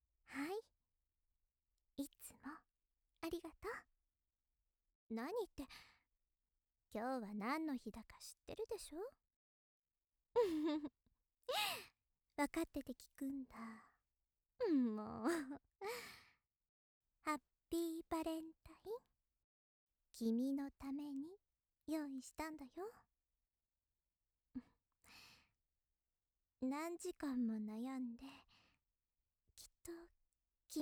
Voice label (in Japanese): 優しいお姉さん バレンタインだよ